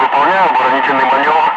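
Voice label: Russian speech, Ka-50 Wingman; Messages engaged defensive; DCS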